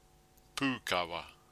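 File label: "pukawa", Māori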